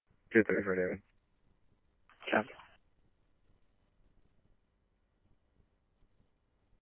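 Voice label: scanner, Phoenix Police Department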